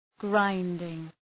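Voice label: "grinding", English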